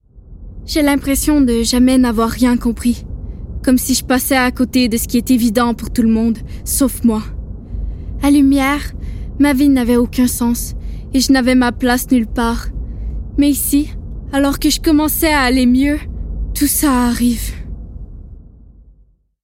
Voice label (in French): vocal Jeux Video